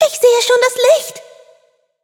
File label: hit, de; Cookie Run